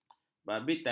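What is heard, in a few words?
"babitan", Malay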